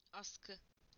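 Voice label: "coat rack", Turkish; PictureDictionary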